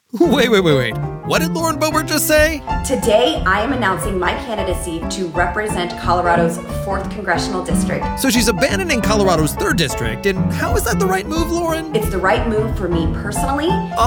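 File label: Republican Comparative Ad, male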